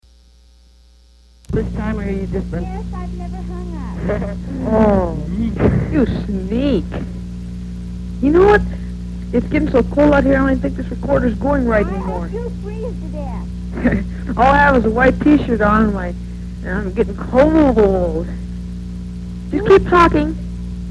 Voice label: Phone Call